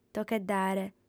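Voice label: "tocheddare", Sardinian, female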